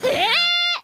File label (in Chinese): 诶 F#5; 五等分的花嫁; 二乃